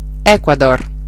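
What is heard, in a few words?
"ecuador", Italian; geography; gcompris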